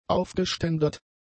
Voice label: "aufgeständert", German; adjectives

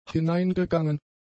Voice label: "hineingegangen", German; adjectives

